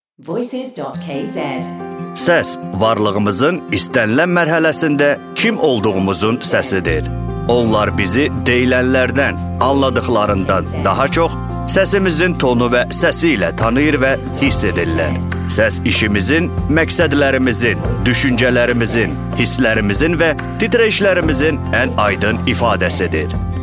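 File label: man Azerbaijani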